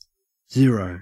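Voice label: zero, Australian English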